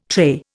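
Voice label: "tray", Indian English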